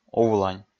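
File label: "увлань", Komi, male; kpv audio dict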